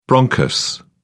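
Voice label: "bronchus", British English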